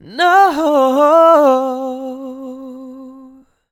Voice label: "Noooh", AdLib; Vocals; Ghosthack